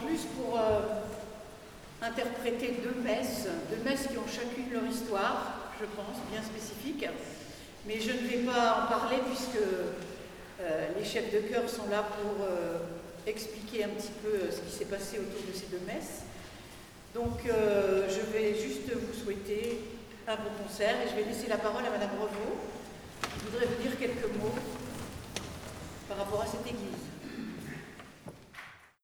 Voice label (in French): Presentation Voix Danses; Alauda